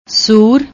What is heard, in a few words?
"soor", Punjabi; ਸੂਰ